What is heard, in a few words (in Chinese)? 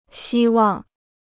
"希望", Chinese